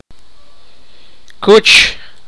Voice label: pig, sipakapense